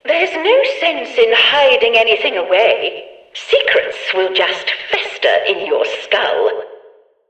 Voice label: Background Announcements

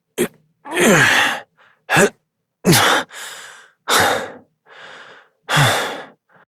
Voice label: Horse Dismount Adult; Duviri

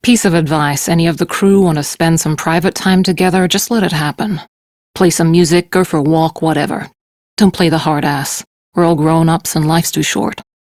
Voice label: Crew Idle, Steel Meridian Female; Warframe; Railjack

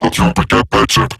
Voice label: Player Spotted, Robot Large

